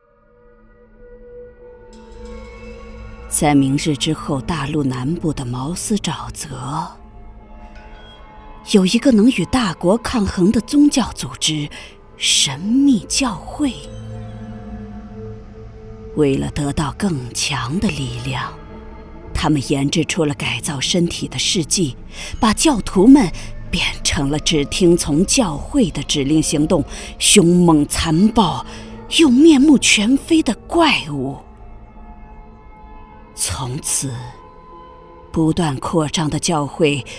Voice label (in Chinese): 悬疑动漫剧